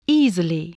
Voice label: "easily", English